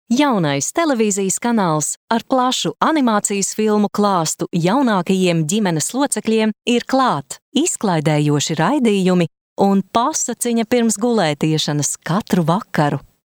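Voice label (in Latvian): Reklama, advert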